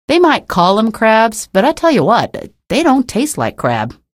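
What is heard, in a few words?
citizen remarks, female; source engine; episodic; episode 1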